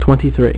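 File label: "twenty-three", male; MacCribbage; InterStellar MacCribbage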